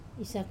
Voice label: "sa", remain, Wamesa

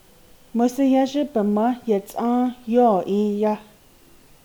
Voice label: navajo

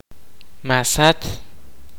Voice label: "deer", kaqchikel

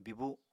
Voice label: "bibu", Apatani

